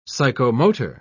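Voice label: "psychomotor", British English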